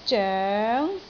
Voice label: "zoeng2", Canton

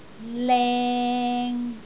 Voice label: "leng3", Canton

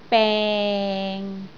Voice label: "beng6", Canton

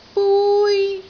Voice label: "bui1", Canton

